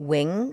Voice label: "wing", L2 English